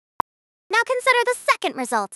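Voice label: speaker female fast high, second result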